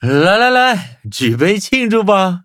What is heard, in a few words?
levelup zh-hant; cookie run